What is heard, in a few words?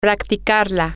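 female, spanish; to Practice It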